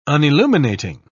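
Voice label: "unilluminating", British English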